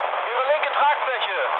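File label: German left wing mood med, speech; War Thunder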